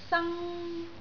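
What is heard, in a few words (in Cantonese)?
"sang1", Cantonese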